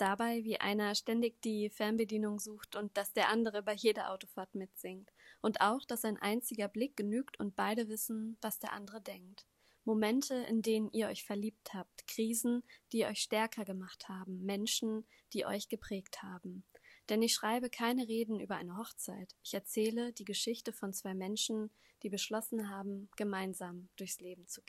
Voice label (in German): Hoerprobe Traurednerin; freie trauung lueneburg